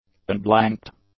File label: "unblanked", English; adjectives